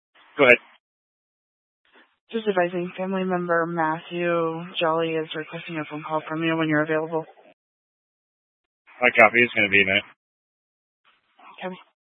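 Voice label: Sheriff Dispatch, scanner audio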